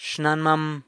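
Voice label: "xnanmam", grandmother, San Lucas Quiaviní Zapotec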